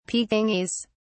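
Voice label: "pekingese", English, British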